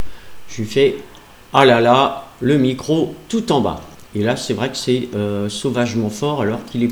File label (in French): micro tout bas